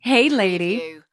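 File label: Woman Says Hey Lady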